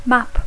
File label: "map", English; mapa; plànol